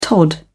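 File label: "tod", British English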